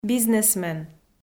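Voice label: "бизнесмен", Komi, female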